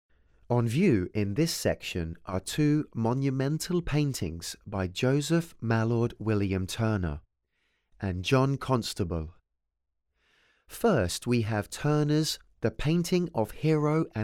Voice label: English, audio guide